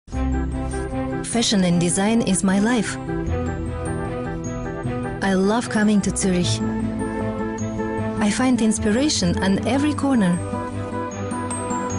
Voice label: en m ru akzent